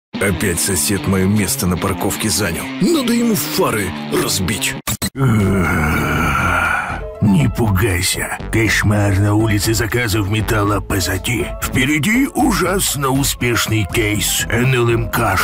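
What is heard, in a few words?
Russian male character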